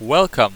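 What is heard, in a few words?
"welcome", English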